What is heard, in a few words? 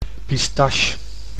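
"pistache", Dutch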